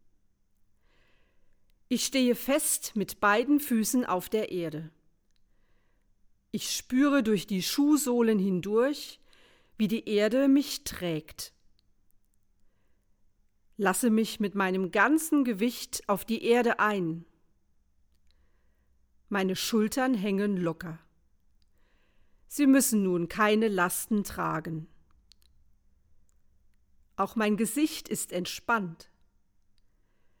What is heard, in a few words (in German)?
Meditative Körperübung; Unterwegs mit Gott; germersheim